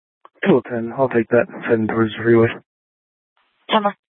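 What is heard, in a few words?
Police Department North Dispatch, scanner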